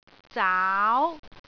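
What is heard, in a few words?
"zaau2", Cantonese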